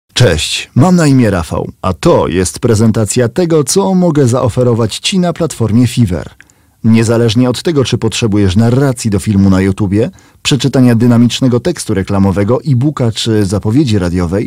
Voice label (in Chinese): 波兰语男 简介